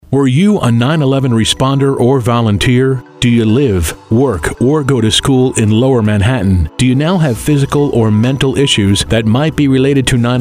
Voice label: healthcare eng